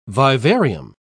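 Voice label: "vivarium", British English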